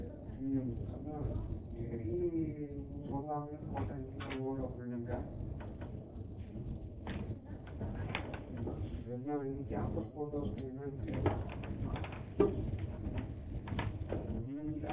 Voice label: speech pitch filter; pitch filtered; Pitch-based enhancement; very noisy speech